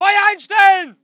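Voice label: voice german infantry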